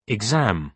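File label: "exam", British English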